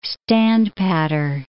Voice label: "standpatter", English

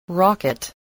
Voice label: "rocket", voice spelling

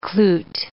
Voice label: "cloot", English

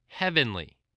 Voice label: "heavenly", English, spelling

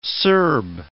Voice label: "Serb", English

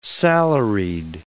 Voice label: "salaried", English

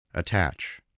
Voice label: "attach", English

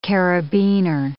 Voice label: "carabiner", English

